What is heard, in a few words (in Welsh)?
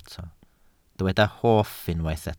"hoff", Welsh